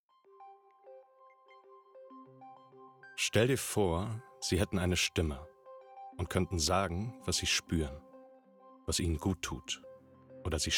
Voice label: Werbung; warm

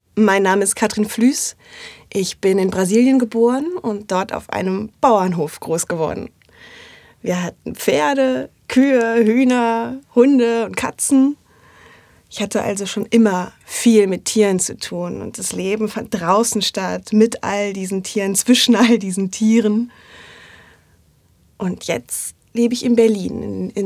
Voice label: Natuerliche Stimme

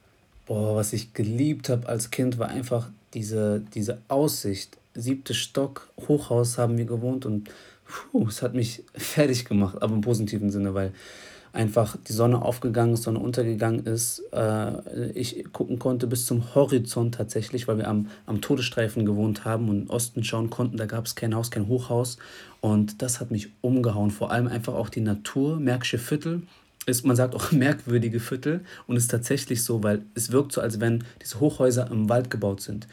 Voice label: Natuerliche Stimme locker

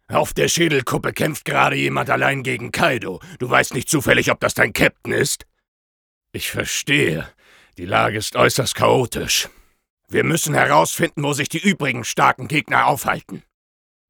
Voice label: Anime; rau

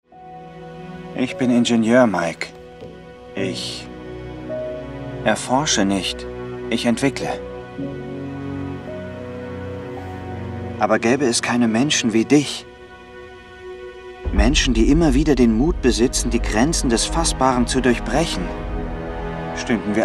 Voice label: stolz sentimental; Cosmos

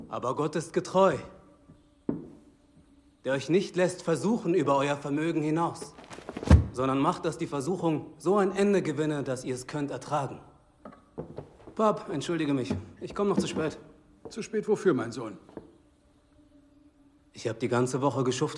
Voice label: Predigt; Blood And Sinners

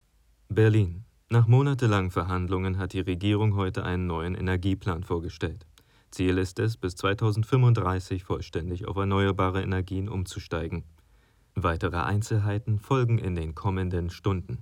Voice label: Nachrichtensprecher

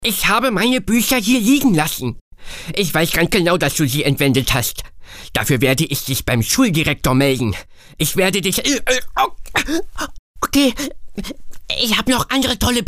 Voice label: Nerd

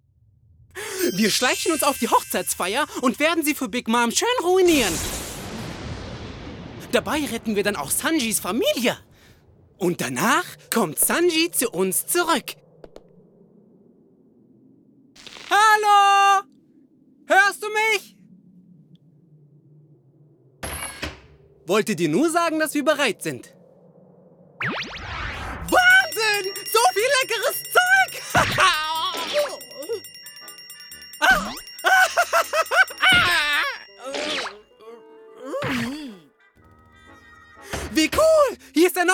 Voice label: Anime

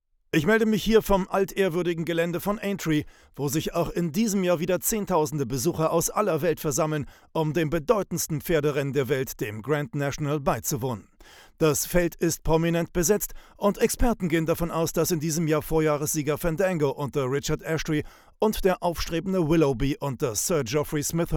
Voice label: TV Stimme Pferderennen

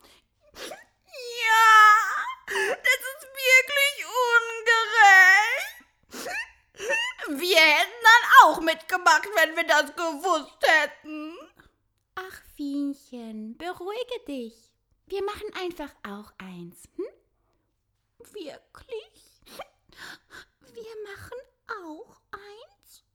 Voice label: Trickstimme Weinerlinchen und Maedchen

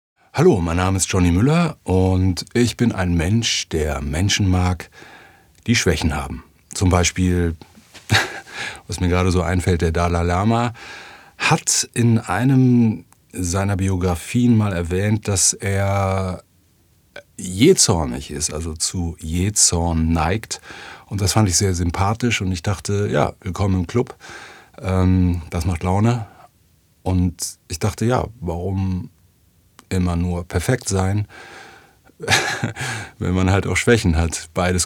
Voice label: Natuerliche Stimme

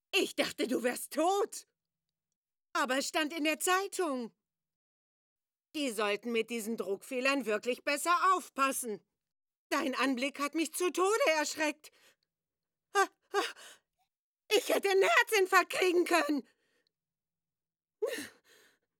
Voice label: Stimmprobe Autofahrerin

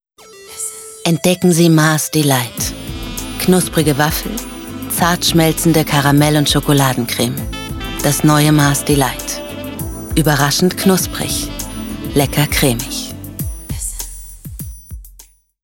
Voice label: AD; Mars

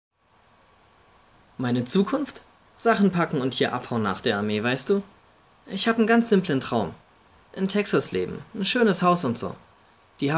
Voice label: Aufnahme fuer Casting

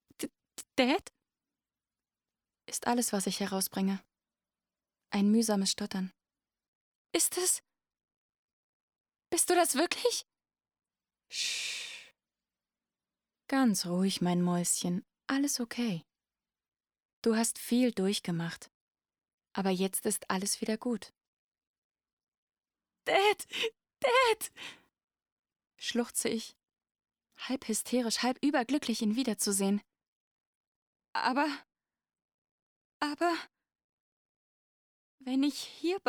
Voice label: Hoerbuch

